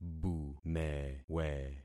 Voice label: "bumewe", concat syllables; Affixing experiment

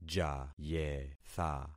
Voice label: "jayetha", concat syllables; Affixing experiment

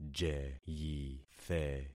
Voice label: "jeyithe", concat syllables; Affixing experiment

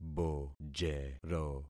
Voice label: "bojero", concat syllables; Affixing experiment

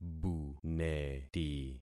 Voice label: "buneti", concat syllables; Affixing experiment

